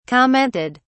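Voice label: "commented", English, British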